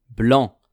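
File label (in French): "blanc", French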